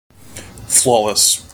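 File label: "flawless", US English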